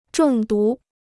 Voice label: "中毒", Chinese